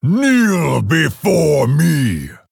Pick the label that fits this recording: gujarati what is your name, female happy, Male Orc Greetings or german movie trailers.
Male Orc Greetings